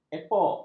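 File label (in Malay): "epok", Malay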